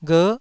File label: "gher9", Taiwanese syllable